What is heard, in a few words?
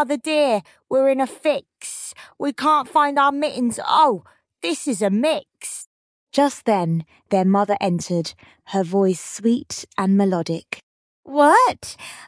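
Three Little Kittens, female British story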